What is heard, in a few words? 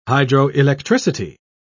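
"hydroelectricity", British English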